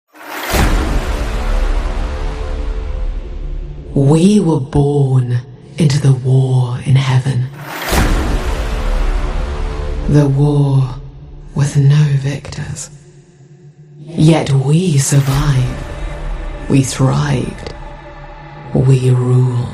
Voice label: english new zealand movie trailers; voice actor